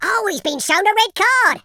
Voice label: fatality, Footy Fan